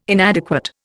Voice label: "inadequate", Indian